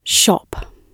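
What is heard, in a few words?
"shop" spoken, British English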